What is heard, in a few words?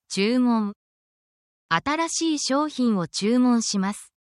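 Japanese word, essential Japanese phrases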